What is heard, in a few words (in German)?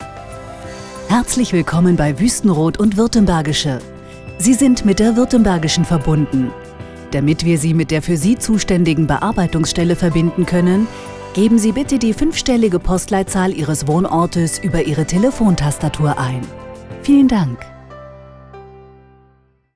Anrufbeantworter